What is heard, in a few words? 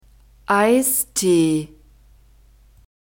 "eistee", German